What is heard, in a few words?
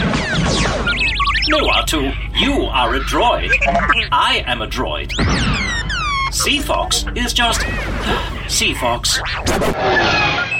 english australian